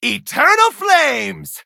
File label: battle en; cookie run